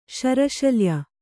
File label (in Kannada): "ಶರಶಲ್ಯ", Kannada